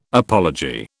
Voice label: "apology", British